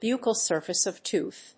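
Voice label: "Buccal surface of tooth", English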